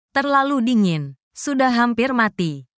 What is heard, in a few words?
indonesian phrases